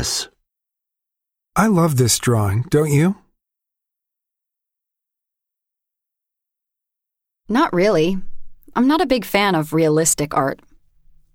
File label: Pronunciation Practice; Connectivity 2